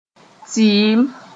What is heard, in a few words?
"tɩɩm", moore